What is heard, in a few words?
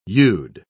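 "you'd", British English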